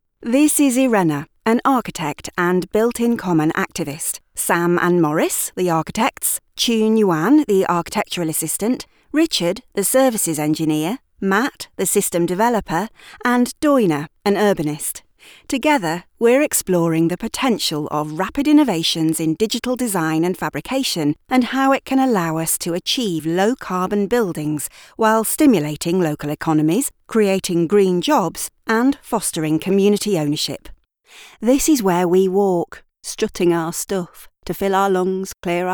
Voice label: Corporate Voice